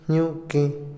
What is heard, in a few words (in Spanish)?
tres veces, Otomí, hombre; INALI